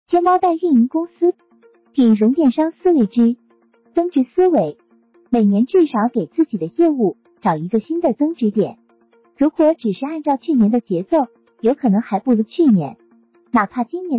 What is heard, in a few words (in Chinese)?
干音与背景音乐